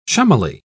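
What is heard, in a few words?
"chummily", British English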